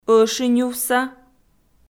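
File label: "ӧшиньувса", Komi, female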